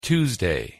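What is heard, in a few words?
"tuesday", English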